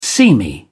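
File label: "seamy", British English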